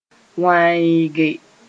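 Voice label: "wãeege", Mooré; dry completely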